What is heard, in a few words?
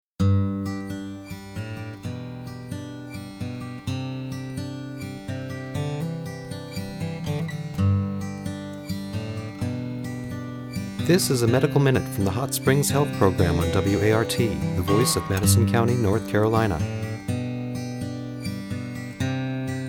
Stretching, radio spots